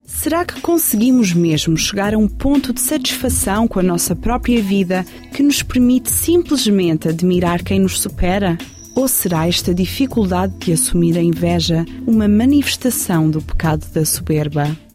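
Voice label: Portuguese narration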